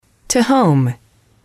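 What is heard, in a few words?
to home, female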